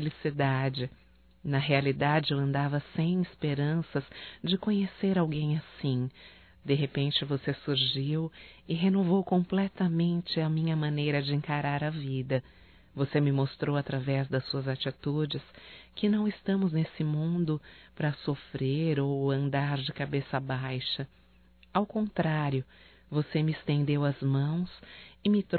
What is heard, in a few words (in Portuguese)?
SOMENTE VOCE(F), Românticas fem